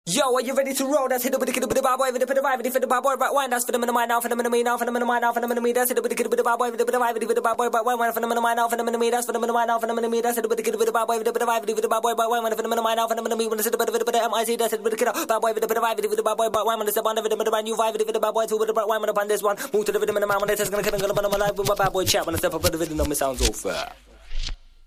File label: very fast talk, Funny RingTones; Children mobile tunes